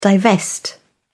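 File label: "divest", British English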